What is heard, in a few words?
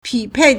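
"pi3pei4", Mandarin Chinese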